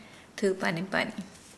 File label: Nukuoro; sea cucumber